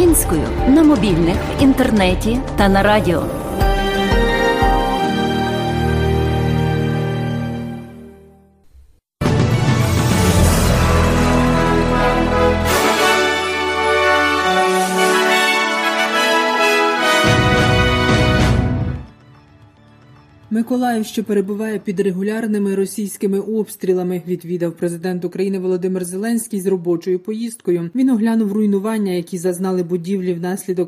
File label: ukrainian news